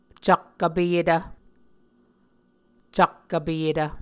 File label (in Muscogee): "cak vpeyetv", Muscogee